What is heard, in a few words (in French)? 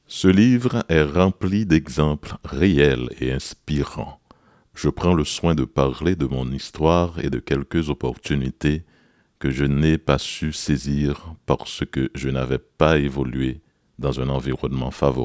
NARRATION; COUVERTURE DU LIVRE